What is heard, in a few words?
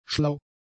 "schlau", German; adjectives